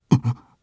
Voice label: Vox, jp; Fluss; kingsraid